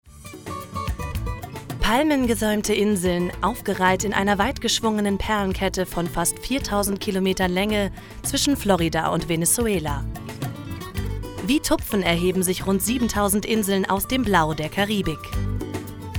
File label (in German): imagefilm, deutsch frauen; sprecher